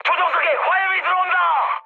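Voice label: Korean on fire mood high; War Thunder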